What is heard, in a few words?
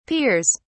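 "peers", English, British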